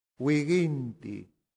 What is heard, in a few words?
"viginti", latin; santillana